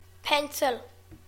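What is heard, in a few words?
"pencil", English; classroom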